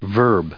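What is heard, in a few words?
"verb", US English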